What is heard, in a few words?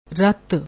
"rutt", Punjabi